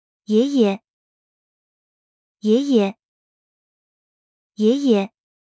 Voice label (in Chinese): 爺爺, mandarin; Traditional Chinese